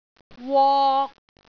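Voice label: "wok3", Cantonese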